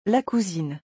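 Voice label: "La cousine", French; vocabulaire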